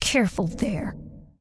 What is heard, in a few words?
Undead Female Warrior Pissed; NPC